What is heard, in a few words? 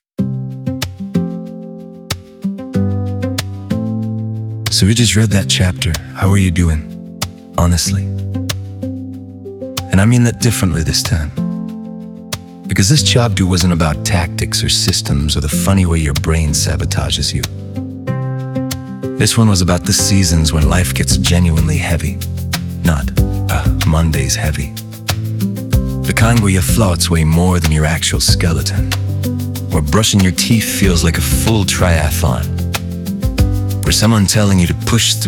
Male; chapter 11 audio